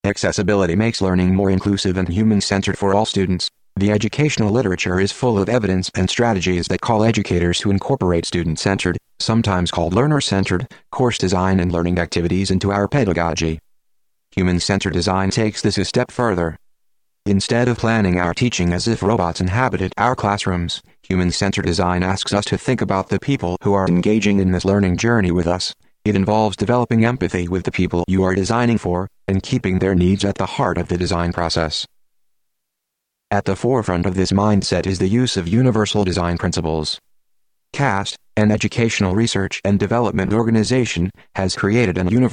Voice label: Apple VoiceOver reads; Accessibility Makes